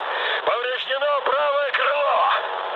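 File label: right wing, mood med, Russian; War Thunder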